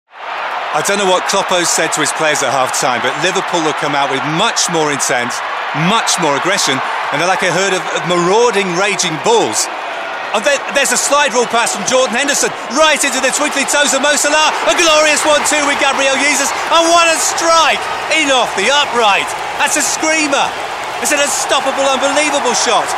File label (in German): Fussball Kommentar